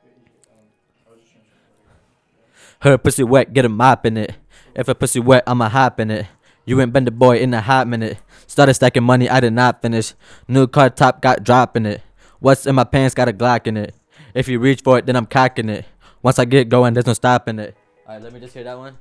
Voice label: recordin Mic Input; flstudio; Vocals